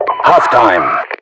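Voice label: Russian halftime, voiceovers; english; mpvoice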